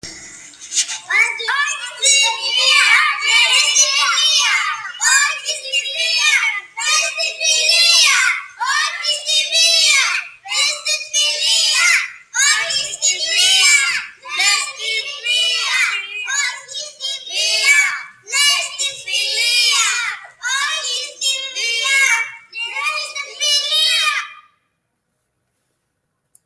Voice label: Voice ai recording